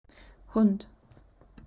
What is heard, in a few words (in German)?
"Hund", German